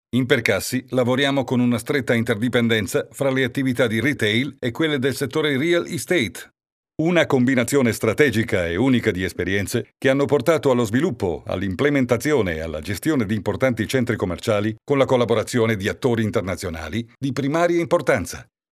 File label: locutor italiano italian voiceover; Spanish cartoon dubbing voice over agency